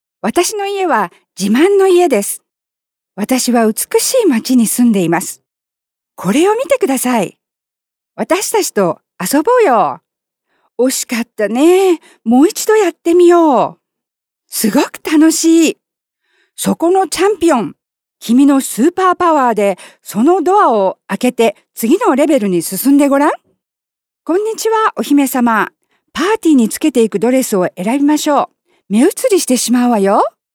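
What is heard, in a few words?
Japanese female children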